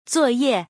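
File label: "zuo4ye4", Mandarin Chinese; 作业; 宿題; HSK３級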